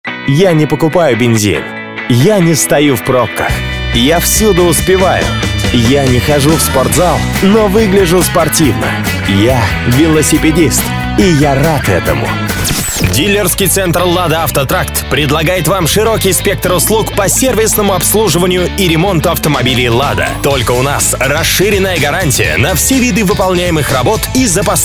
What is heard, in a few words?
Rus